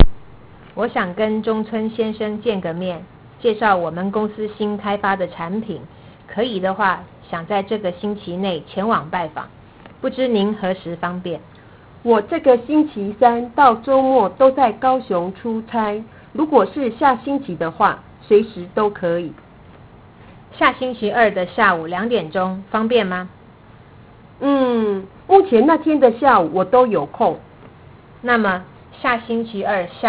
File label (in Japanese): Chugokugo